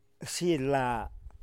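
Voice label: "Xila", San Lucas Quiaviní Zapotec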